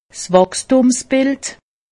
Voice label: "wachstum", alsace; medecine

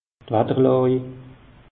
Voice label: "watter làj", alsace; meteo

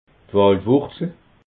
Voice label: "walwurzel", alsace

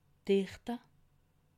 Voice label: "dichte", alsace

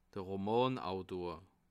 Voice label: "romanautor", alsace

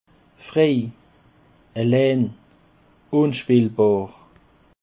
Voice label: "frei", Alsace

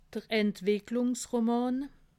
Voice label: "entwiclungsroman", alsace

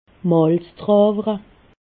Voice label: "malzdrewere", alsace

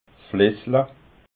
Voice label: "flissla", alsace

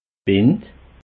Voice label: "bind", alsace

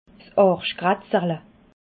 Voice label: "arschkratzerle", alsace

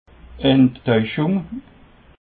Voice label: "enttaueschung", alsace

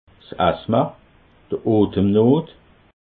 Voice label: "asthma", alsace

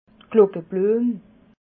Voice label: "glockebluem", alsace

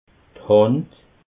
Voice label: "hand", alsace

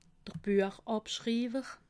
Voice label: "buech abschriwer", alsace

